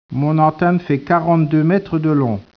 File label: speech, French